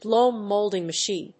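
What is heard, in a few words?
"BLOW MOLDING MACHINE", English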